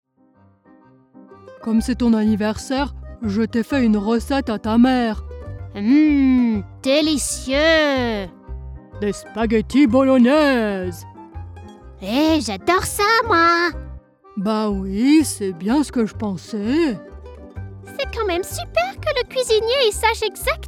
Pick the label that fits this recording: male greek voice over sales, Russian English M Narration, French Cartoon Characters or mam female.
French Cartoon Characters